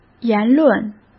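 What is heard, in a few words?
"言论", Mandarin Chinese; hsk; chinese-flashcards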